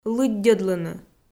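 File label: "лыддьӧдлыны", Komi, female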